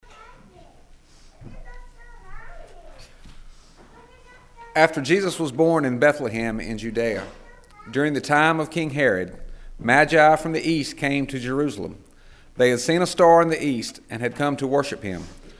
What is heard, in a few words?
narration; Kipling United Methodist Church